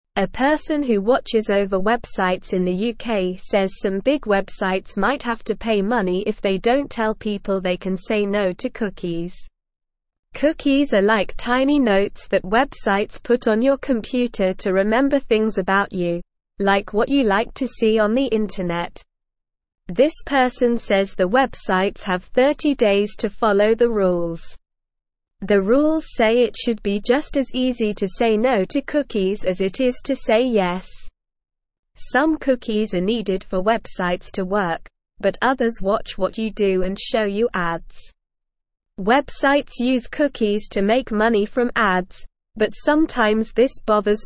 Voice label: Beginner SLOW Reading, English